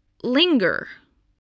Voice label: "linger", spelling